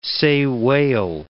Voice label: "sei whale", English